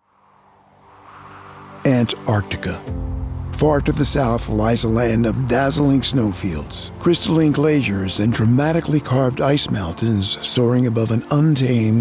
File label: Narration, Professional Male Voice Over; professional non-union Voice Actor specializing in corporate/training videos